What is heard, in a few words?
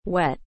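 "wet", English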